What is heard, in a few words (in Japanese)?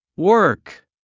"work", アメリカ英語